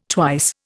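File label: "twice", Indian English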